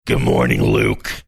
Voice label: saying good morning deep gruff

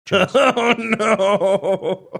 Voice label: laughing saying oh no

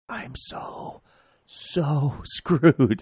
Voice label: quietly saying i am so screwed

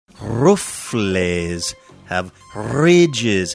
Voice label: rolling his rs saying roo full lays

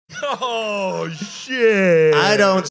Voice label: drawn out oh ho shit

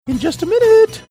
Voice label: saying in just a minute funny

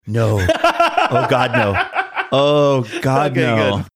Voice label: saying no oh god no laughing

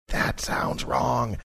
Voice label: whispering that sounds wrong

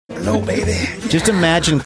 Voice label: saying hello baby yeah mellow hold music

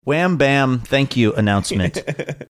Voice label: wham bam thank you announcement

